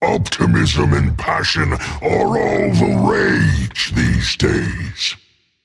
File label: joke rage; chaac